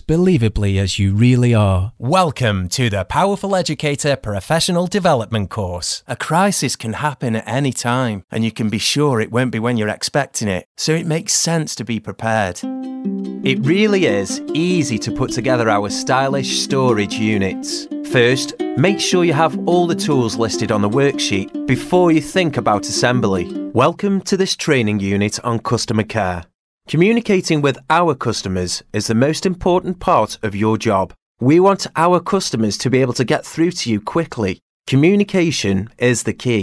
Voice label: Corporate